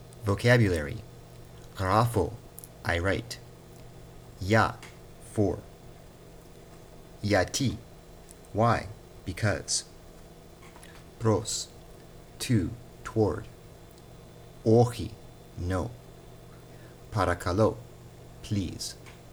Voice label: Modern greek

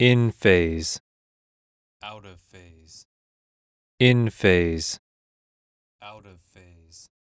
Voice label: Dx, test, phase, alternating, voice; Eiravaein Sound

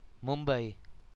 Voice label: "Mumbai" pronunciation

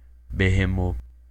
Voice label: Pronunciation Behemoth

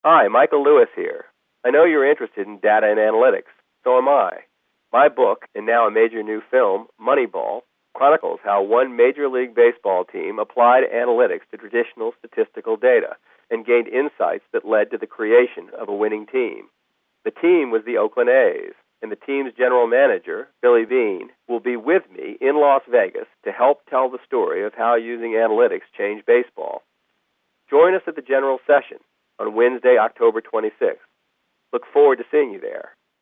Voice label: phone VO

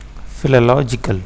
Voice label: "philological", en-us

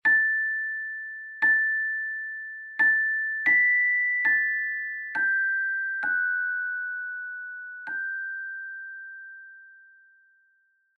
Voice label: Baby Bear Tired

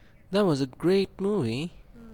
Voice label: boy first dialogue

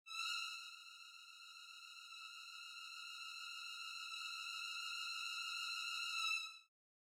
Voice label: fear

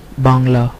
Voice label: Bangla

